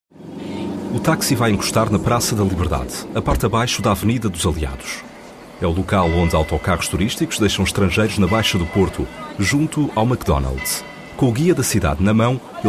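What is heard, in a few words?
Portuguese, male, narration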